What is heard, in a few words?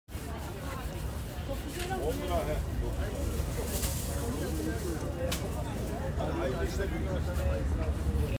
People talking market, Rotterdam Field Recordings